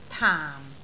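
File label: "taam4", Cantonese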